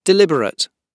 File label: "deliberate", gb